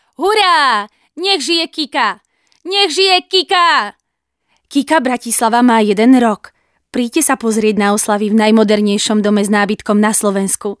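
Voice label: Female Slovak commercial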